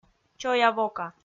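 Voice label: "чоя-вока", Komi-Zyrian, female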